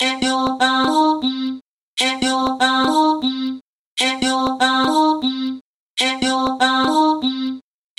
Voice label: speech aquestone